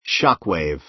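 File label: "shock wave", American English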